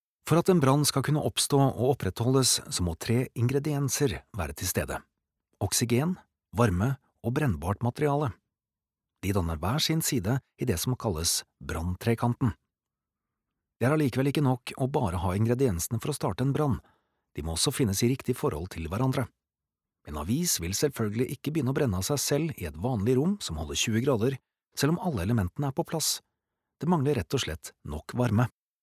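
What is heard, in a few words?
Norwegian elearning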